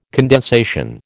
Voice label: "condensation", American

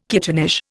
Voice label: "kittenish", Indian

